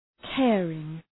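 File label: "caring", English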